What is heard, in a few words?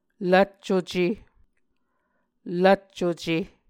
"lvccuce", Muscogee; Mvskoke Words with Repeated Consonants; A Dictionary of Creek/Muskogee; SIL International